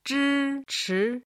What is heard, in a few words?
"zhichi", chinese